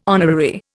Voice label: "honorary", Indian